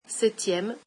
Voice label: "seventh", French